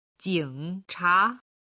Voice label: "jingcha", Chinese